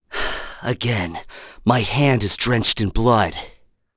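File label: Vox Victory, voices heroes English; kingsraid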